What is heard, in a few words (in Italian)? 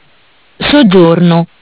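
"Soggiorno", Italian; Bentel; BLE320; MESSAGGI VOCALI; Audio BGS220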